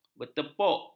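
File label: "bertepuk", Malay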